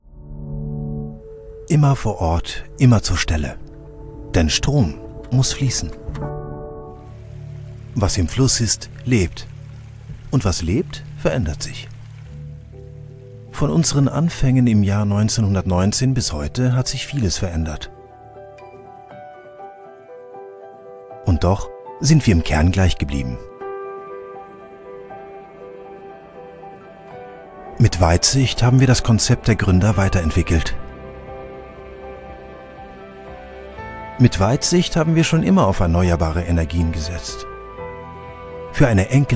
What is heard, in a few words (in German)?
Imagefilm DE